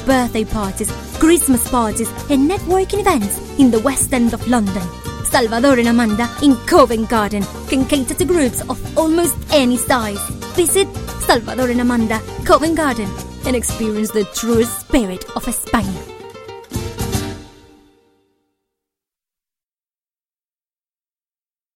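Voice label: Spanish English, female, advert, accent of spain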